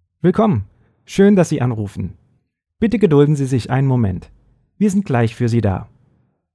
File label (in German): begruessungsansage deutsch